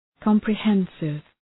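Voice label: "comprehensive", English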